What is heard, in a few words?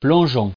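"plongeon", French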